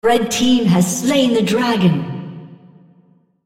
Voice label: On Kill Dragon red female, en US